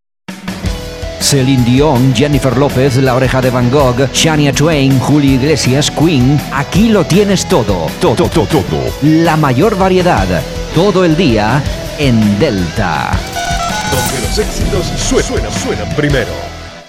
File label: jingle; delta fm